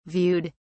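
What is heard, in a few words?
"viewed", British English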